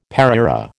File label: "pareira", American